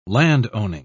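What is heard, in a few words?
"landowning", British English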